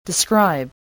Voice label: "describe", English

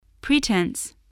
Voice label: "pretense", voice, spelling

